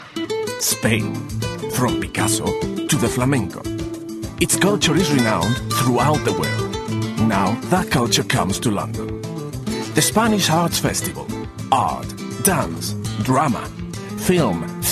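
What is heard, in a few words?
Spanish European accented English; GoLocalise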